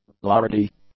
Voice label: "lardy", english; adjectives